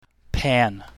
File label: "pan", english